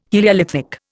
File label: "heliolithic", Indian